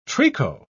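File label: "tricot", British English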